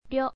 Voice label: "ryo", kana